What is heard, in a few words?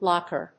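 "laugher", English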